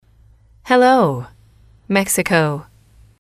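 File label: hello mexico, English, female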